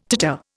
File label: "titter", Indian